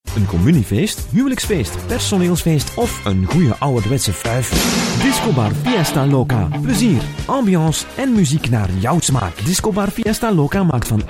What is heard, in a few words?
radiospot